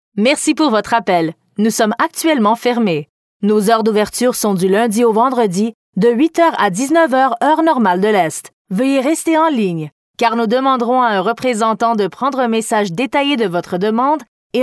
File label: IVR French Canadian